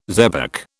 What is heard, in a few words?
"xebec", British